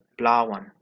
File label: "blawan" Anglo Saxon